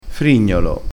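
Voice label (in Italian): "frignolo", vocabolario dialettale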